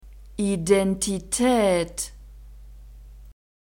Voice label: "identitaet", German; nouns gender feminine